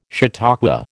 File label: "chautauqua", American